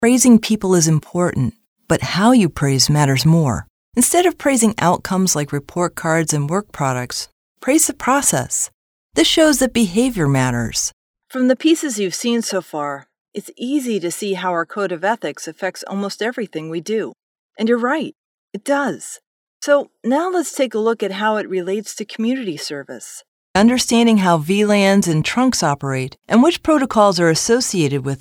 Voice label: english us and canada female e learning